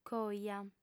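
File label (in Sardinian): "coja", female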